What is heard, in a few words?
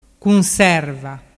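"cunserva", Vernazzese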